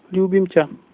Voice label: i love you, slovak

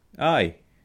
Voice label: yes, scots

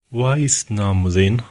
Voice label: name, Gothic

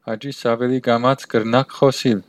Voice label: slowly, armenian western

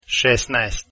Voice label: 16 croatian, numbers

